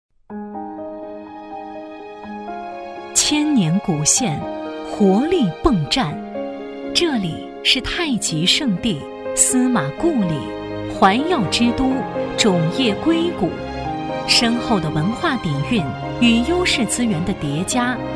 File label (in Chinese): 女 政府专题 社工站 抒情大气; 质感知性; 沉稳大气